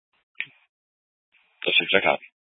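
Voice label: Sheriff Dispatch, Scanner audio recording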